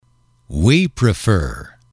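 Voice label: we prefer, English, male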